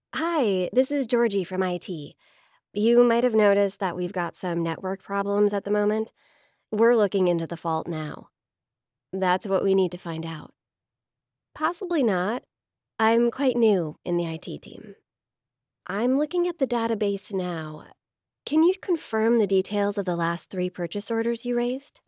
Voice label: english us and canada female e learning

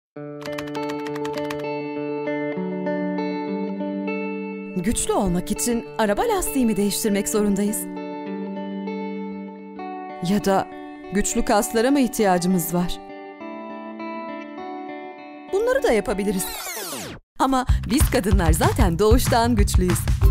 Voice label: turkish female commercial

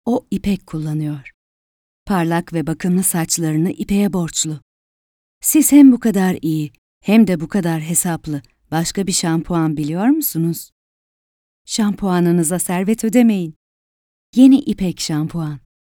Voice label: turkish female generic

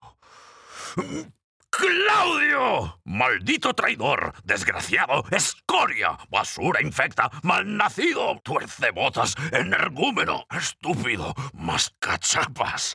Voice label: spanish spain male

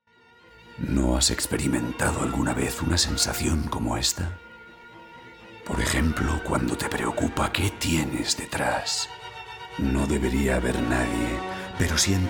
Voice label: spanish spain male commercial

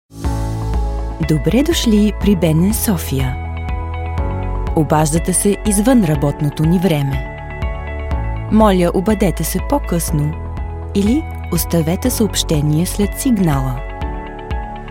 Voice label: bulgarian female corporate

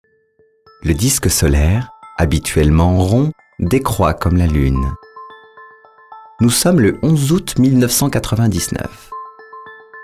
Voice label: french european male narrative

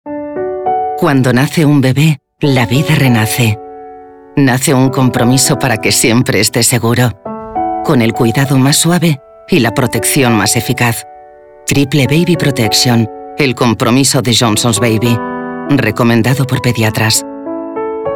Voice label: spanish spain female commercial

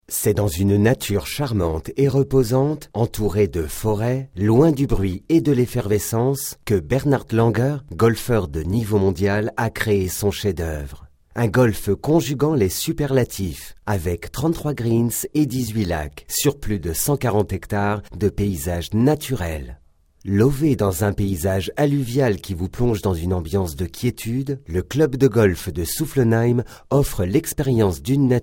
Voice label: french european male commercial

